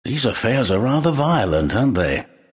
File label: announcer battle start; dlc trine